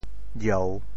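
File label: "jieu3", Teochew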